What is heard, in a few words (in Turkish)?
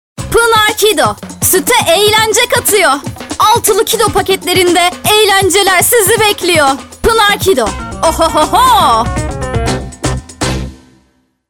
reklam